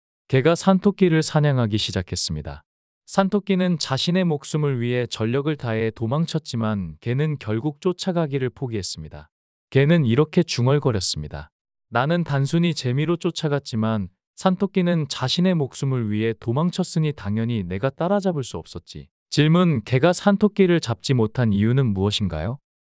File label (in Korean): 이야기 남자; 개와 산토끼